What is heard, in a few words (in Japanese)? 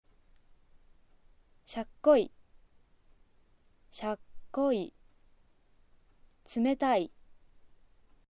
tsumetai, aizuben